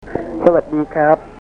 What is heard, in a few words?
"sawat dii krap", Thai